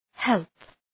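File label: "help", English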